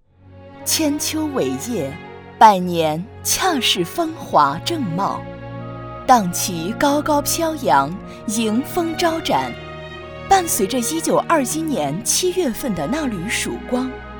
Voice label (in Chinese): 女 专题; 惠城区家庭教育协会